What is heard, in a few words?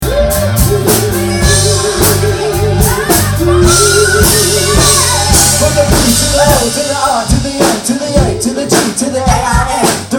rap